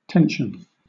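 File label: "tension", English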